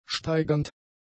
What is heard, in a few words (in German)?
"steigernd", German; adjectives